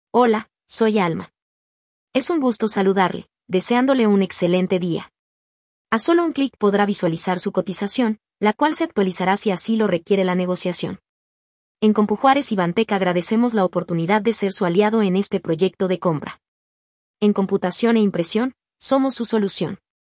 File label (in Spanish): mujer cotiza